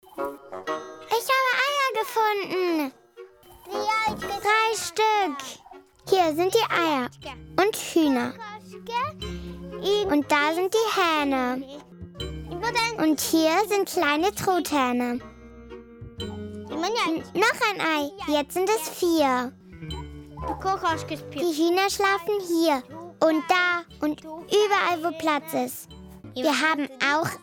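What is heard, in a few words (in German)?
voice-over, Kindersprecher; ich bin ich; kika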